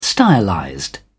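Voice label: "stylized", English, uk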